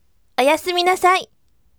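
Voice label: voice "good night" Japanese, bright; oyasuminasai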